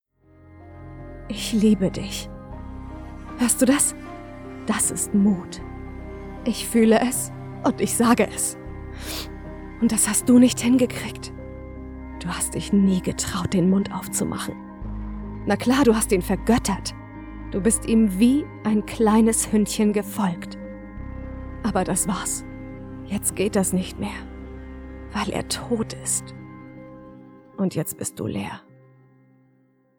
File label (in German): Monolog verletzlich